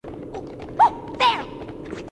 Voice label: ohh there, grunts